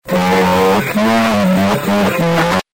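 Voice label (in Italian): "e occhiali", Italian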